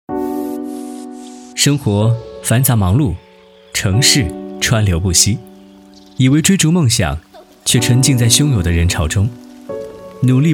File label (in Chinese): 男,旁白独白; 中国人寿